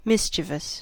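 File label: "mischievous", US English; pronunciations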